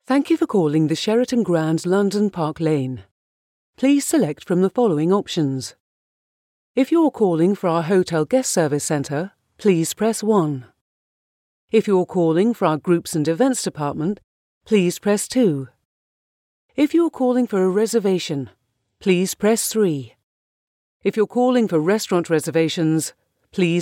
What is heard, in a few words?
telephone